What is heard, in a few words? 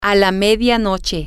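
Spanish, female; midnight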